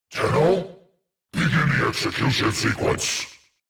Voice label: Rescue Approach Alert Grineer Male; Warframe; Generic Missions

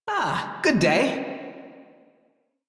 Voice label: Crew Greet, Male, en; Dialog; Warframe; Railjack Crew; Perrin Sequence

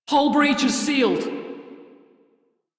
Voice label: Crew Fix Breach, Female; Dialog; en; Warframe; Railjack Crew; Steel Meridian

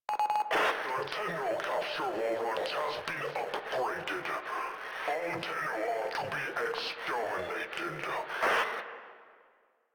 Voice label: Announcements, Radio Interceptions

